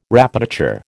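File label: "répétiteur", American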